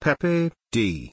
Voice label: "pepeD" pronunciation